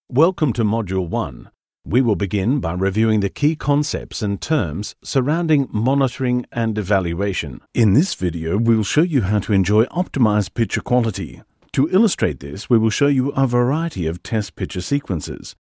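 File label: English Australian, narration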